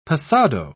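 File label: "passado", British English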